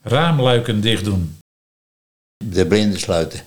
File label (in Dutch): "De blinden sluiten", Nieuw Lekkerlands dialect